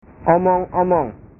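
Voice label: "omong omong", Indonesian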